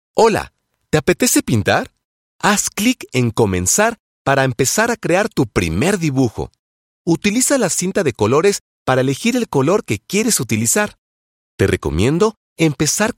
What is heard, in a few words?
spanish latin american e-learning